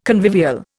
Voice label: "convivial", Indian